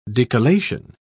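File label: "decollation", British English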